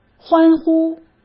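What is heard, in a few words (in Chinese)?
"欢呼", Mandarin Chinese; chinese flashcards; hsk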